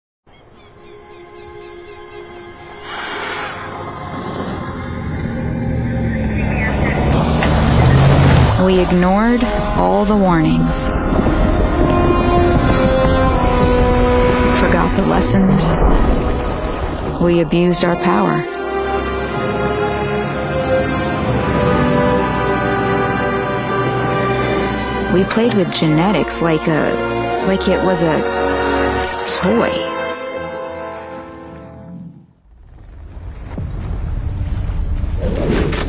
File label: Video Game Trailer